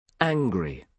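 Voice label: "angry", British English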